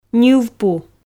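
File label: "ньыв пу", Komi, female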